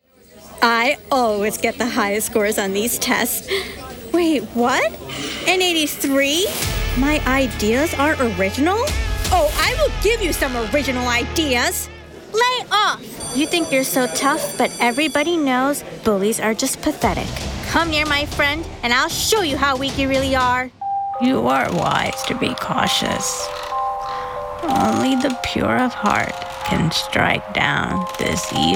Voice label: Animation VO